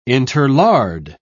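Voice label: "interlard", English, British English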